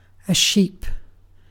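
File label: "sheep", British English; pronunciations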